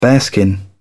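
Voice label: "bearskin", British English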